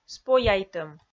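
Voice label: "спояйтӧм", Komi-Zyrian, female; audio dict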